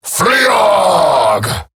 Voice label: Ability Short Cast, Grineer Male, en; Dialog; Barks; Battle; Eximus